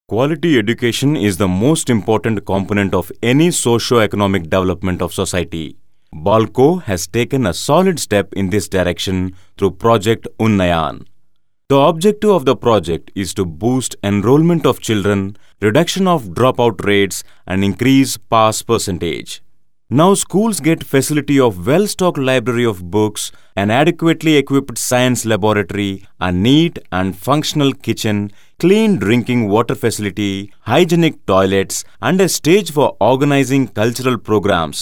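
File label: English Indian Andhra Male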